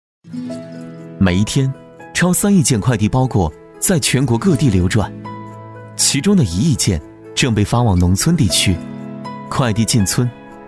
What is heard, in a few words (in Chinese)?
男 宣传; 菜鸟网络